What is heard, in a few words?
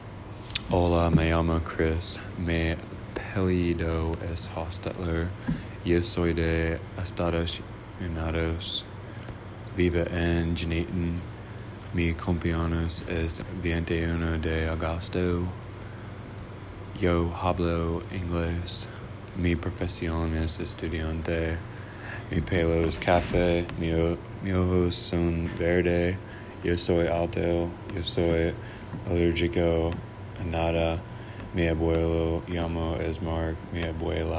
spanish